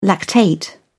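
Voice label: "lactate", British English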